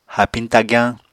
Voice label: "haapiñ tagyañ", Apatani